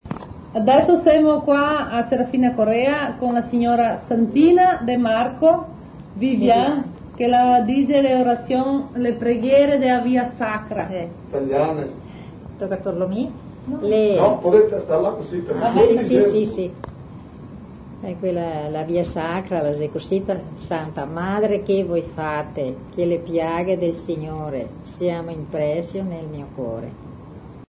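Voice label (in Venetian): Orassion, Talian; Serafina Corrêa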